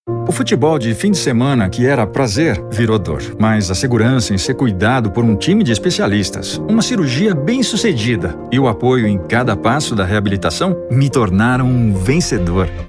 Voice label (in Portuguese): Spot, Locução; Spot 30 segundos; Governo Federal; Crack